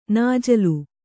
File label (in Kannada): "ನಾಜಲು", Kannada